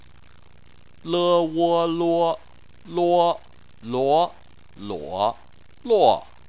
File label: "luo", chinese